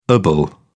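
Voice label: "ible", British English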